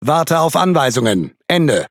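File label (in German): Speech Pilots Bomber At position, DE; World Of Warships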